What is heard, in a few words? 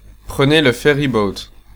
Take Ferry, French, male